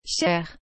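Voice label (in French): "chere", French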